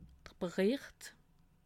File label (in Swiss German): "bericht", alsace